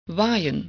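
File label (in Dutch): "waaien", Dutch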